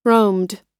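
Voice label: "roamed", US English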